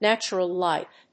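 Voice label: "NATURAL LIGHT", English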